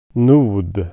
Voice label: "node", swedish

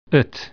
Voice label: "the", dutch

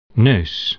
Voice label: "nose", dutch

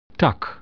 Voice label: "bough", dutch

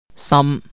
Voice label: heart, cantonese

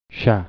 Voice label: already, galician

